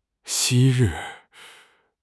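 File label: "昔日", Mandarin Chinese; HSK6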